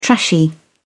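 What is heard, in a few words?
"trashy", British English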